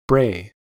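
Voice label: "bray", US English; pronunciations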